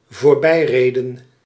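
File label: "voorbijreden", Dutch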